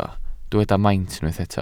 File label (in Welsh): "maint" Welsh